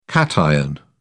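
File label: "cation", British English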